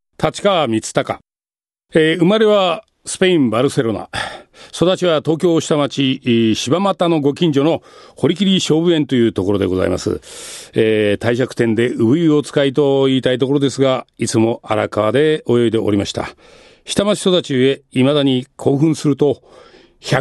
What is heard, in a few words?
freetalk, male voice